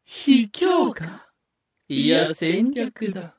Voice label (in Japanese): 化物人ボイス, バックアタック; 戦闘ボイス